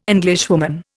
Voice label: "Englishwoman", Indian

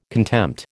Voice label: "contempt", American

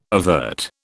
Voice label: "avert", British

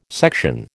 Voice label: "section", American

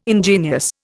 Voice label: "ingenious", Indian

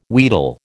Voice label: "wheedle", American

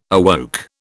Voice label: "awoke", British

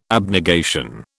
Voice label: "abnegation", British

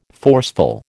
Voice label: "forceful", American

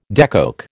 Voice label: "decoke", American

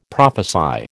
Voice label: "prophesy", American

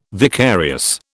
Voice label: "vicarious", British

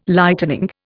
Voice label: "Lightening", Indian

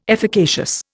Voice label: "efficacious", Indian

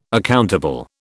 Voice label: "accountable", British

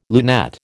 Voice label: "lunette", American

